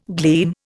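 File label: "glean", Indian; Dictionary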